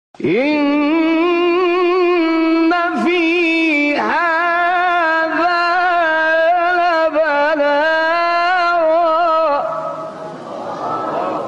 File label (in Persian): تلاوتی زیبا از سوره انبیا; استاد غلوش